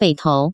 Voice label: bei tou, Chinese

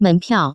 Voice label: "menpiao", Chinese

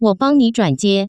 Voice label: bang zhuanjie, Taiwan Chinese; phone call

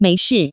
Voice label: "meishi", Taiwan Mandarin

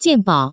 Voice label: "jianbao", Taiwan Chinese

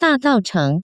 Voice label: da dao cheng, Chinese

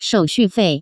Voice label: "shouxufei", Taiwan Chinese

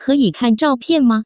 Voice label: zhaopian, Taiwan Chinese; salon; jianfa

